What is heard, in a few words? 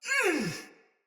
Battle Female; Soldier; Barks; Sinecure Melee Mace Agent